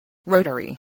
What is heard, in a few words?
"rotary", voice spelling